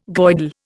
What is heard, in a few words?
"Goidel", Indian